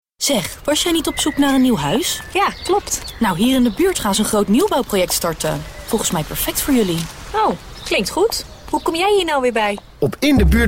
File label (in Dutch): dialoog; In de Buurt Huis